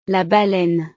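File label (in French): "la baleine", French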